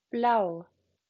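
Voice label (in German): "blau", German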